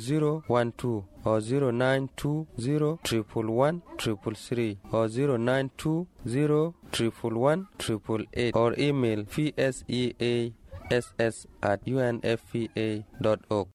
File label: Radio Jingle Community; ROA